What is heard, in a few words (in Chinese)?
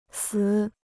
"死", Chinese